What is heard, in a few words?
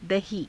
"dahii", Hindi